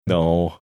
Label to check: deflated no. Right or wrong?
Right